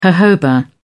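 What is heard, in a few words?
"jojoba", British English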